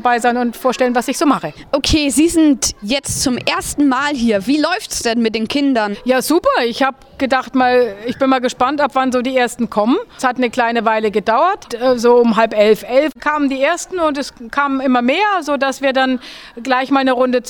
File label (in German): interview Trommelworkshop